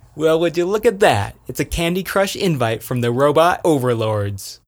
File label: Vocal Robot Overlords, pitch glitch